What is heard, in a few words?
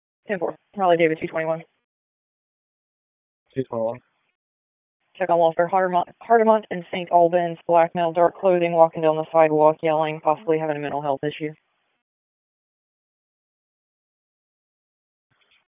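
Police Northeast District Dispatch, p25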